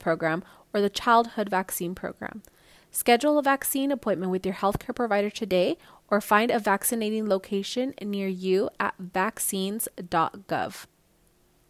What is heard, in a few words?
ON HOLD Updated COVID19 English